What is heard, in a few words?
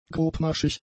"grobmaschig", German; adjectives